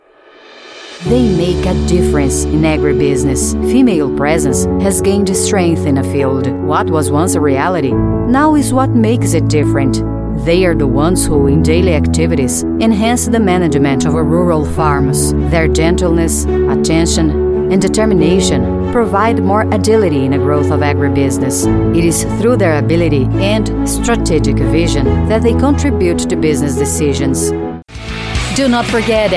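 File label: Brazilian Portuguese, Accented English; voice demos; GoLocalise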